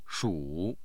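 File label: "shu3", Mandarin Chinese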